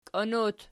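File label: "kion" plural, Archi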